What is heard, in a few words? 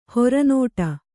"ಹೊರನೋಟ", Kannada